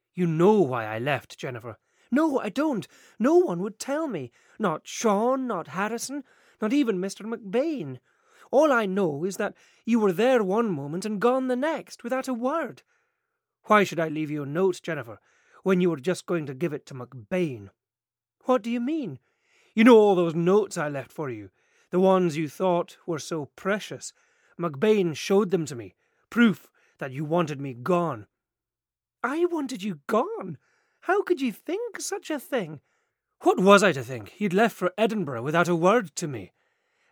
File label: Scottish